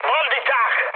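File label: Hungarian enemy detected mood high, speech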